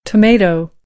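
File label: "tomato", American, English; AmericanBritish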